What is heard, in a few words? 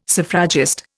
"suffragist", Indian